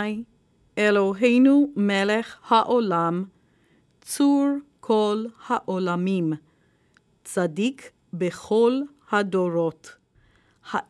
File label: Blessing After Haftarah Short Read; mitkadem hebrew for youth; ramah